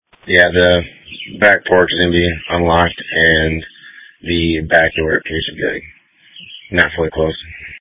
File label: Police Dispatch